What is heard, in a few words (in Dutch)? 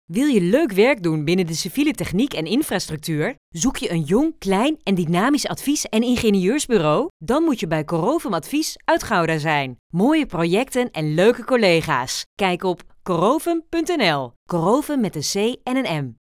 Radiospot